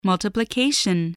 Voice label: "multiplication", voice